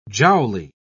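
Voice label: "jowly", British English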